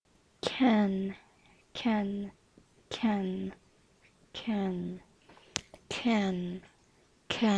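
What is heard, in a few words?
"can", spoken word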